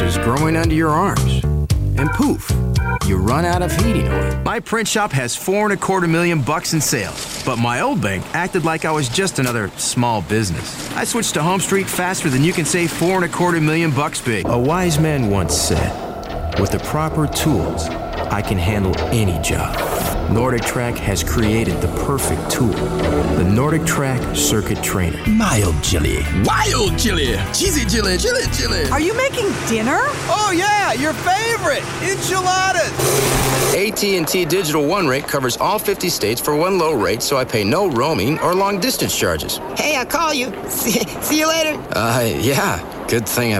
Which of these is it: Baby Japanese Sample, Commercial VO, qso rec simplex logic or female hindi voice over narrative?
Commercial VO